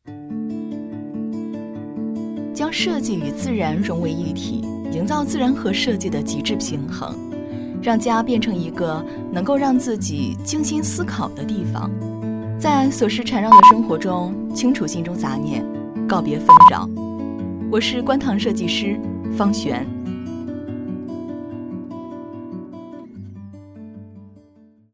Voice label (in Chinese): 独白; 设计师